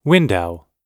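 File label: "window", English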